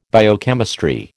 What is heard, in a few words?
"biochemistry", American